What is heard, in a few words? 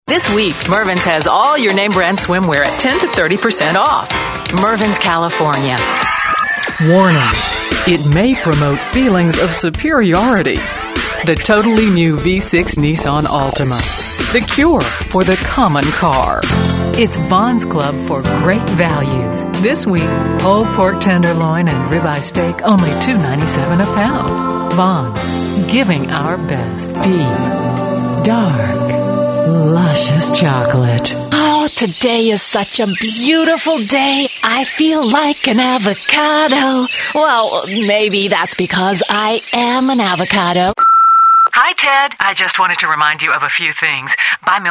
commercials